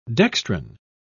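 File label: "dextran", British English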